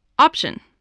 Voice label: "option", English voice